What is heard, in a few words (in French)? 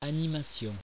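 "animation" French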